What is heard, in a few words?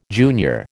"junior", American